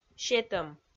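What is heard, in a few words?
"сетӧм", Komi, female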